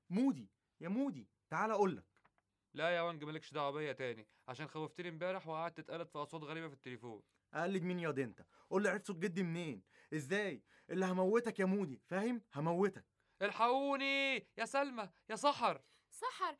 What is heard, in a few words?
acting scene